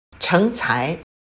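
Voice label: "成才", Chinese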